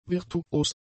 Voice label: "virtuos", German; adjectives